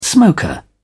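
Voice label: "smoker", British English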